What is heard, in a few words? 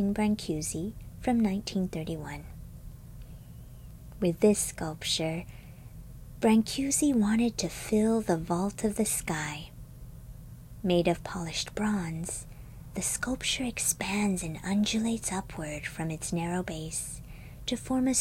flight meditation